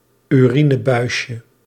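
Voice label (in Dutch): "urinebuisje", Dutch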